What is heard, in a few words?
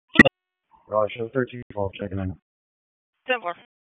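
Raleigh Police Southeast District Dispatch, p25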